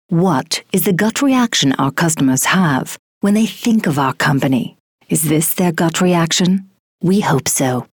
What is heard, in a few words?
Mid Atlantic